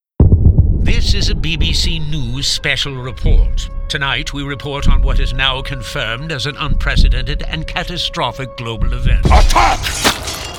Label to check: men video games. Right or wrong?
Right